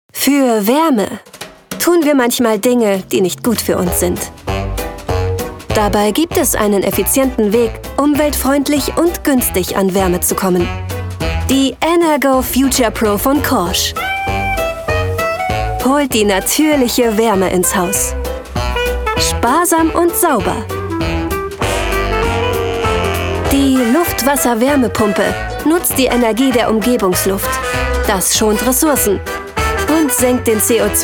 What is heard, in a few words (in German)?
Werbung Imagefilm